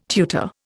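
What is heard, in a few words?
"tutor", Indian English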